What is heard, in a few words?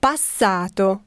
Italian elapsed; samsung YP-U5; Audio User Interface